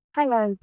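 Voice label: Voice Acting es, female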